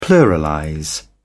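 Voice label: "pluralize", British English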